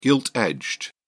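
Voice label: "gilt-edged", British English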